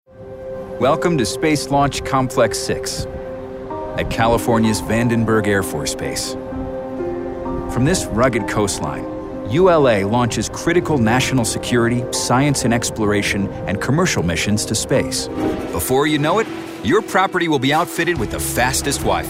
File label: Corporate